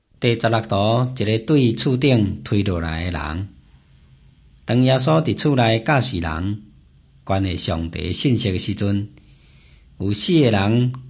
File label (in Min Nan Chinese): taiwanese, drama; hokkien; taiwanese min nan; jesus teacher and healer